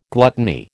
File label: "gluttony", American